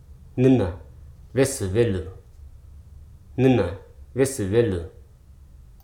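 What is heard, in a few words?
"honest", spoken word; hruso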